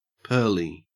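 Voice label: "pearly" English, Australian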